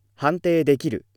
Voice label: male